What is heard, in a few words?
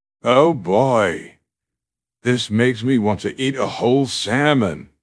happy; fertig classic games